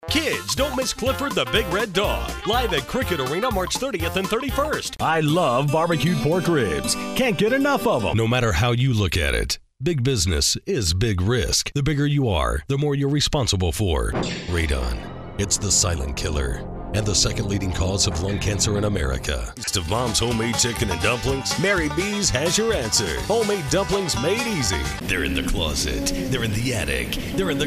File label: commercial